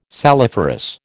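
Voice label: "saliferous", American English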